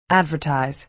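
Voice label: "advertise", American English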